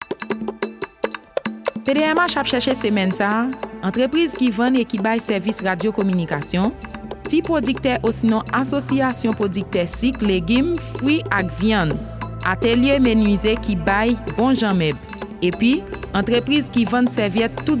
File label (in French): spot annonce